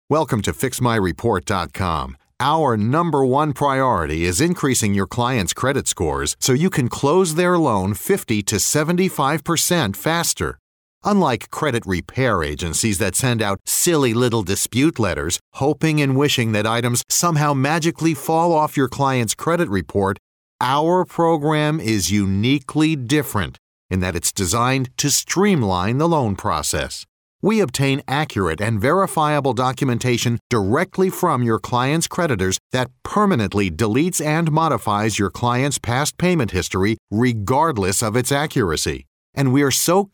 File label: cool guy voice